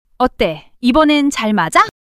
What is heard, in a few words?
surprise, monologue